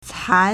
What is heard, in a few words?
"can2", Chinese